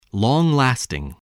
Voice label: "long-lasting", spelling